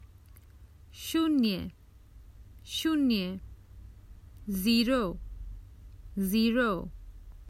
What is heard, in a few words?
Common Hindi Phrases for travel Zero